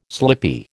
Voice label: "slippy", American

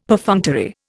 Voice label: "Perfunctory", Indian; Dictionary

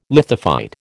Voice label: "lithophyte", American

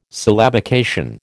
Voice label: "syllabication", American

